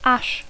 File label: "ash", English